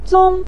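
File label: "zong", Mandarin Chinese syllable, neutral tone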